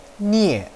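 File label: "nie", Mandarin Chinese, fourth tone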